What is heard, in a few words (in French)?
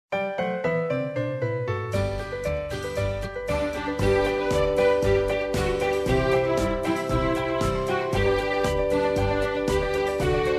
Alphabet francais; 走遍法国